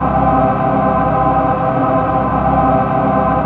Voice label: VOX, VOCODER